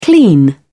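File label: "clean", English, uk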